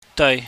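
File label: "deui", Cantonese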